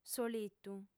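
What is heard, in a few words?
"solitu", female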